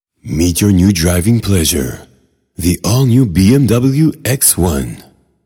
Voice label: car commecial; BMW